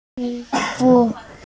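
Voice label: "li3wu4", Mandarin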